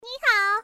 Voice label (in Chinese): "nihao", syozyo